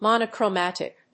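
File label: "monochromatic", English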